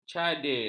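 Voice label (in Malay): "cadir", Malay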